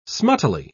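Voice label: "smuttily", British English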